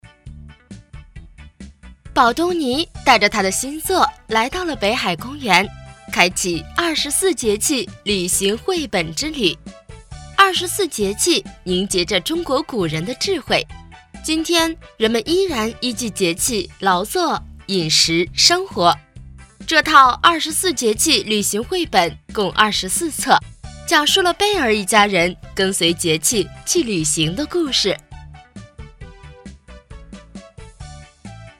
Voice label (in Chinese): 有声书绘本童话故事